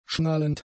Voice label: "schnallend", German; adjectives

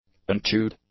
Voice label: "unchewed", English; adjectives

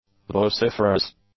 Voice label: "vociferous", English; adjectives

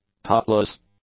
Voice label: "topless", English; adjectives

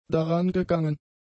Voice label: "darangegangen", German; adjectives

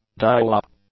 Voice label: "dial-up", English; nouns

